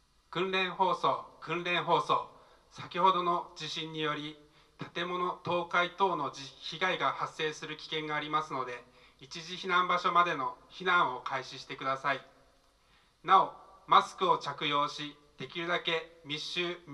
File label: Evacuation Drill announcement; 避難訓練; コロナ禍での避難訓練．切迫感がない．